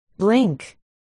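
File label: "blink", spoken word, articulation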